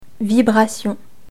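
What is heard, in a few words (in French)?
"vibration", French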